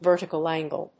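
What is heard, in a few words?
"vertical angle", English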